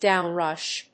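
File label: "downrush", English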